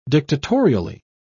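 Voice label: "dictatorially", British English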